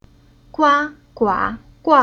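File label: "Gua", Chinese syllable; Уроки фонетического блока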